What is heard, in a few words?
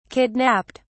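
"kidnapped", British English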